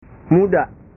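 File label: "muda", Indonesian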